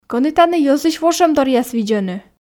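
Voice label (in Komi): кӧні тані йӧзлысь вошӧмторъяс видзӧны, Komi, female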